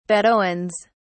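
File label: "bedouins", English, British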